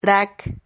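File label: "rac", Spanish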